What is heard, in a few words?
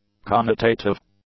"connotative", English; adjectives